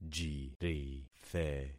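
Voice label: "jirithe", concatenated syllables; Affixing experiment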